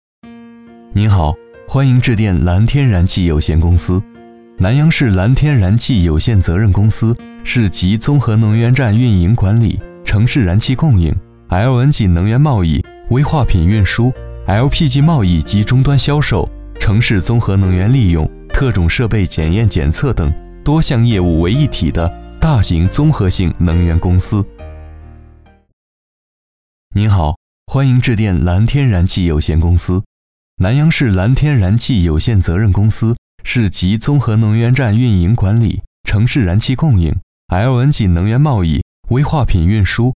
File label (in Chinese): 男 彩铃; 蓝天公司